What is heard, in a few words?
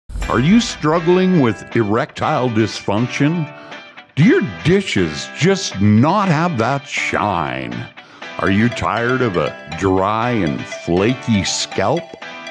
radio spot; promos for value 4 value music